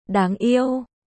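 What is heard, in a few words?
"Đáng yêu", Vietnamese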